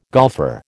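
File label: "golfer", American English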